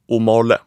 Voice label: aa male